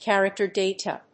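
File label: "Character Data", English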